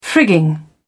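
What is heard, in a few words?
"frigging", British English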